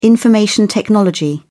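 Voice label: "information technology", British English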